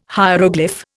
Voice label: "hieroglyph", Indian